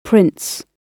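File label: "prints", British English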